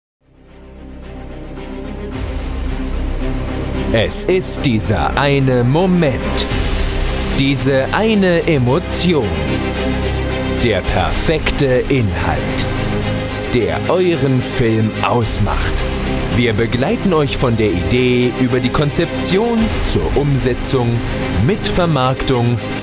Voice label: GERMAN